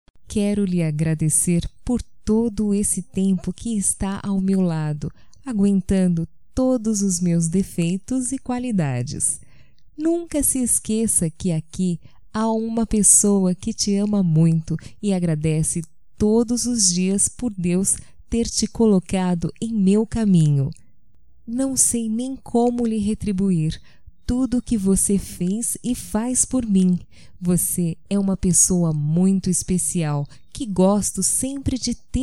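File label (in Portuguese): ANIVERS CASAM OU NAMORO - female, Telemensagens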